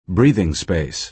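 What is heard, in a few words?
"breathing space" American English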